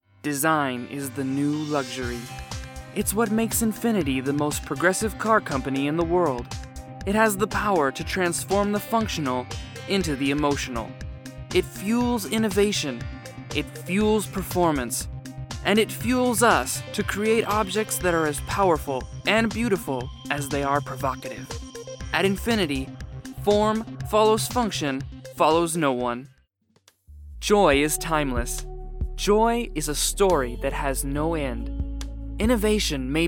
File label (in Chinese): 外籍男-汽车广告; Auto